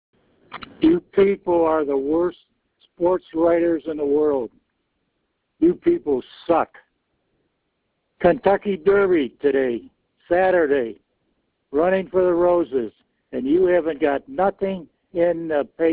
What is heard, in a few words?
voicemail